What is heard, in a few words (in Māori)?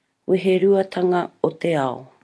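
"weheruatanga o te ao", Māori word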